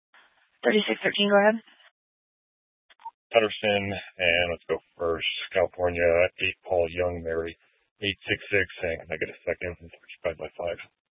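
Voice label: Police Dispatch, scanner audio recording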